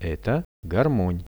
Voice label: "eto garmon", Russian; Это гармонь; music; RUSSIAN VISUAL DICTIONARY

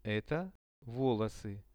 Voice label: "eto volosy", Russian; visual dictionary

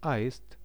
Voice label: "aist", Russian; аист; birds; RUSSIAN VISUAL DICTIONARY

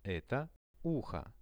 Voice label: "eto uho", Russian

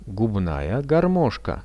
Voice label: "gubnaja garmoshka", Russian; губная гармошка; music; RUSSIAN VISUAL DICTIONARY

